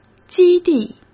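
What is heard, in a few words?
"基地" Mandarin Chinese; hsk; chinese-flashcards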